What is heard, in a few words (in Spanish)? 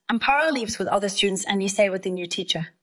Voice Acting es